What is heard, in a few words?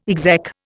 "exec", Indian